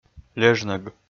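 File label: "лежнӧг", Komi, male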